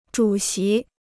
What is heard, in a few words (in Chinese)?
"主席", Chinese